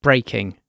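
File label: "breaking", British English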